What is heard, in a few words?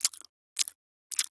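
Barks Bird, English; Warframe; Entrati Cavia Vendors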